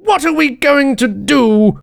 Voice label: jeers